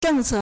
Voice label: "zheng4ce4", Chinese